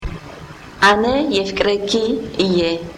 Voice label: tigrinya